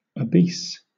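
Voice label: "obese", British English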